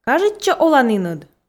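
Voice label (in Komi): "кажитчӧ оланіныд", Komi, female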